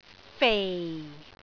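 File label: "fei4", Cantonese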